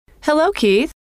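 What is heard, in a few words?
hello, female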